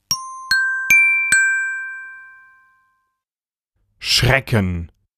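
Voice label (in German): "Schrecken", Deutsch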